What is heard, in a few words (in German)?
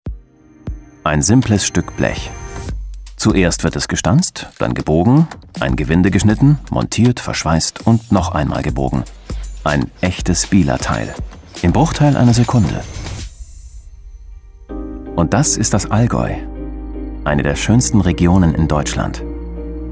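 commentary, Neutral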